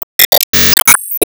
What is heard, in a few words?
Emo Greet